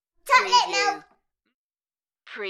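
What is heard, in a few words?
Kid Says Chocolate Milk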